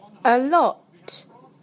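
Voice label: "a lot", British English; PictureDictionary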